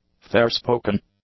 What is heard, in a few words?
"fairspoken", english; adjectives